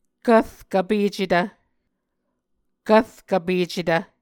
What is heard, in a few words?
"kvrkvpecetv", Muscogee